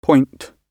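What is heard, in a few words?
"point", British English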